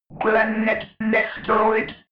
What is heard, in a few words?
robot voice planet destroyed fx